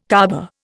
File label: "Caaba", Indian